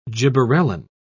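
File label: "gibberellin", British English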